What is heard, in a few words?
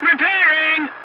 voice message repairing; War Thunder